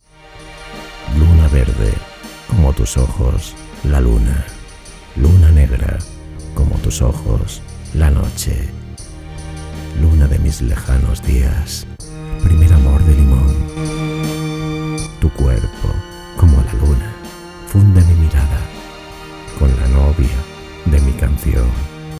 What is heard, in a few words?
spanish voiceover locutor; locutores spain